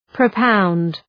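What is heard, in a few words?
"propound", English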